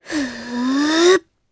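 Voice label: Vox Casting Japanese; Reina; kingsraid audio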